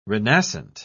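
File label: "renascent", English, British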